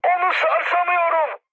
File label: aircraft damaged mood high, speech; War Thunder